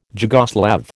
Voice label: "Jugoslav", American